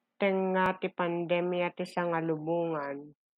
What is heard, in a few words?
Ilocano